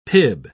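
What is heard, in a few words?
"pib", British English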